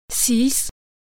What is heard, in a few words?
number six French